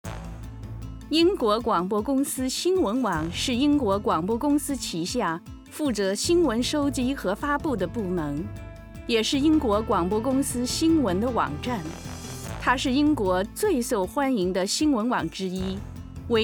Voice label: Chinese Mandarin Corporate